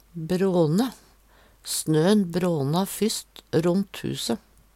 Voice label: brane, Numedalsmål; Verb; Vêr og føre

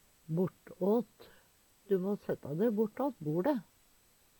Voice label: "bortåt", Numedalsmål; Preposisjon

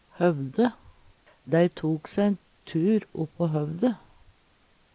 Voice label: "høvde", Numedalsmål

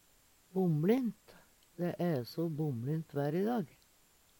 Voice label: bomlint, Numedalsmål; Adverb

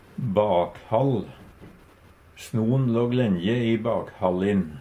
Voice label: "bakhall", Numedalsmål; Substantiv hokjønn; Snon låg lengje i bakhallin

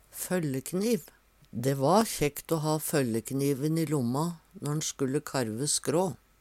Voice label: "føllekniv", Numedalsmål; Dæ va kjekkt o ha føllekniven i lomma når skulle karve skrå.

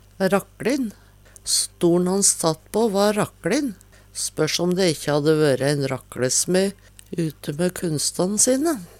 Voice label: rakklin, Numedalsmål

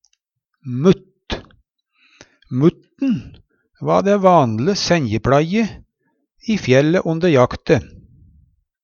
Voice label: "mutt", Numedalsmål; Substantiv hankjønn; Personleg utstyr, klede, sko; Veggli; Jakt, fangst, fiske

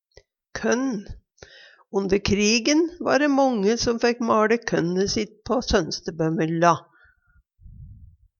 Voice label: kønn, Numedalsmål; korn

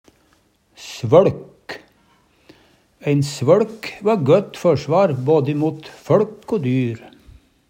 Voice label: svøLk, Numedalsmål; Substantiv hankjønn

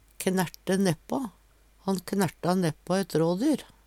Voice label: knerte neppa, Numedalsmål; felle eit dyr, skyte; Uttrykk; Han knerta neppå ett rådyr.

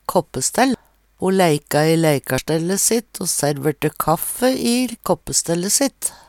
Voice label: kåppestell, Numedalsmål

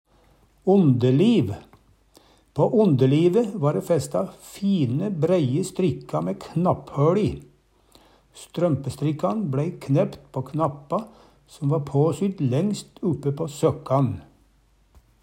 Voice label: ondeliv, Numedalsmål

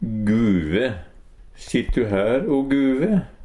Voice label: guve, Numedalsmål; Sitt du her o guve?

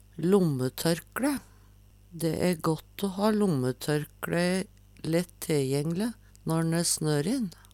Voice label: lommetorklae, Numedalsmål; "lommepLagg" og "lommeduk" blir og bruka

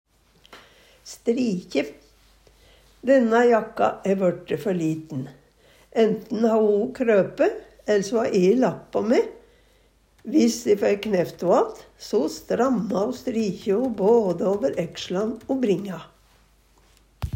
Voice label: strikje, Numedalsmål

